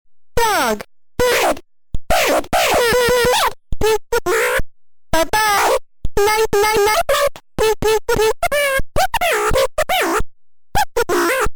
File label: alien first words pitched words